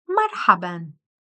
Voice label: Arabic Hello formal; Greetings